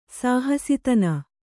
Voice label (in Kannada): "ಸಹಸಿತನ", Kannada